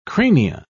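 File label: "crania", English, British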